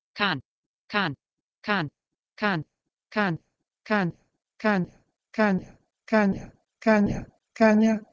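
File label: Proto-Indo-European keh2n to Slovak kaNa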